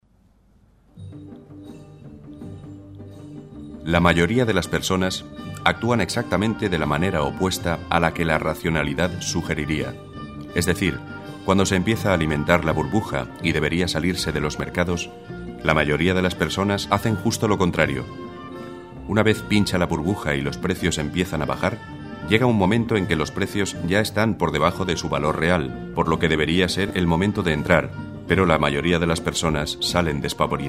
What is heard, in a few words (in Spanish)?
Formación